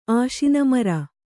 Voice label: "ಆಶಿನಮರ", kannada